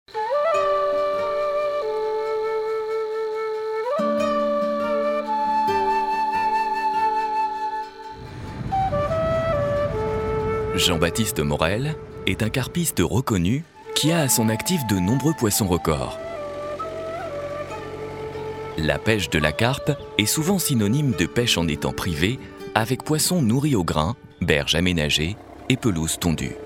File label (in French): voix off documentaire, comédien voix off homme; CARPE ATTACK